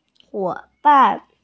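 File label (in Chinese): "huo3ban4", Mandarin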